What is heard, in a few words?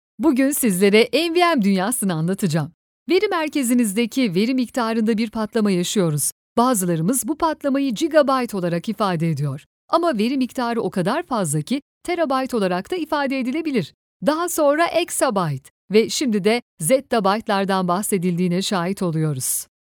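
Turkish, female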